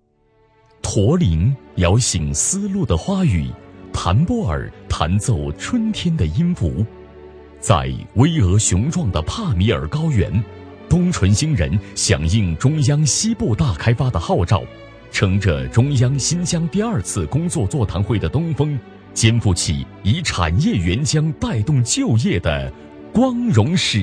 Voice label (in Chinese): 男; 新疆